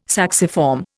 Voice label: "sacciform", Indian

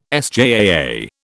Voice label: "SJAA", British

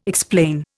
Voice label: "explain", Indian

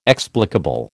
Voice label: "explicable", American

